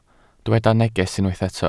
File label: "neges", Welsh